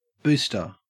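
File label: "booster", Australian English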